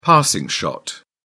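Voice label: "passing shot", British English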